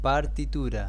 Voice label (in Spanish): Musical score, spanish